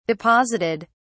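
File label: "deposited", English, British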